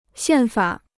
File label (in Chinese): "宪法", Chinese